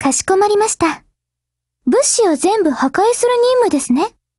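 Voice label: operation begin, Japanese; GF Alarm; Carcano1938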